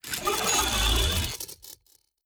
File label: robot molluscoid greetings; Stellaris; synthetic dawn